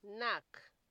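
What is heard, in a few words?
"nack", Nigerian Pidgin, female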